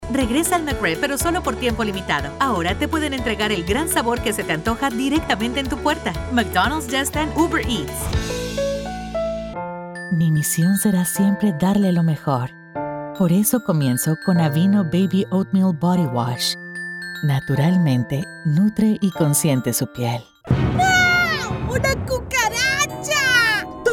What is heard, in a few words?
Commercial Comercial Spanish